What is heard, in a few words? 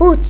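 "hoed", Dutch; ktuberling; tdegames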